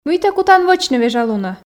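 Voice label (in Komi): мый тэ кутан вӧчны вежалунӧ, Komi, female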